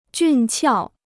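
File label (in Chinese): "俊俏", Chinese; jùn qiào; attraktiv und intelligent; reizvoll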